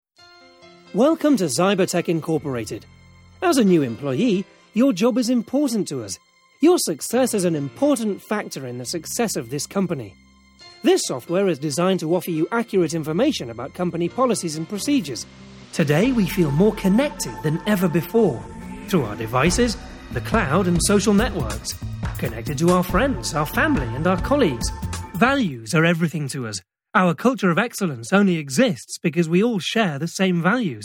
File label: english british e-learning